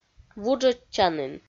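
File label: "вуджӧдчанін", Komi, female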